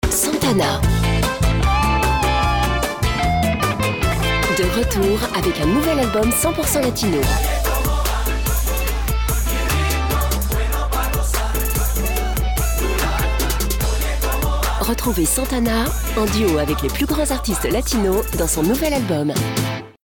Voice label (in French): pub disque